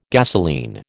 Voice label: "gasolene", American

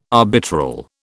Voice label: "arbitral", British

